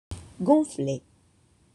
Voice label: "gonflee", French

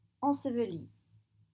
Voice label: "ensevelie", French

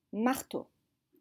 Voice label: "marteau", French

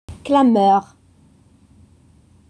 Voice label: "clameur", French

